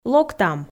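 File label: "локтам", Komi, female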